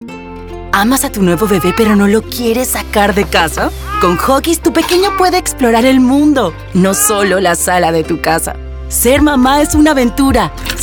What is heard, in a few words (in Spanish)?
inspiracional maternal